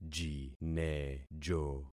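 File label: "jinejo", concatenated syllables; Affixing experiment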